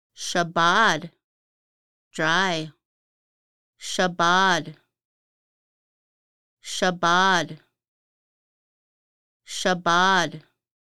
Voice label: dry "sabad", Lushootseed; hunting feasting